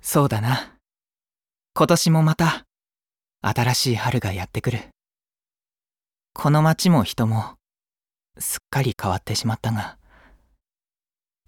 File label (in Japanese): 男